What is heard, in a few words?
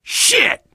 swear, player survivor voice biker